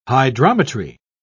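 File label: "hydrometry", British English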